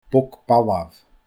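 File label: "bog balbh", Scottish Gaelic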